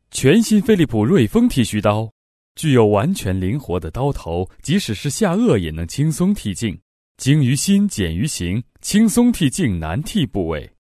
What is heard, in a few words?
Chinese Mandarin, TV ad; voice over